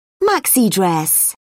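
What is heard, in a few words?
"maxi", British English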